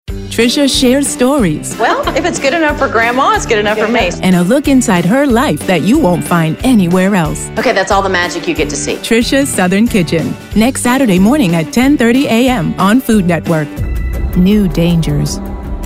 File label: Promo, friendly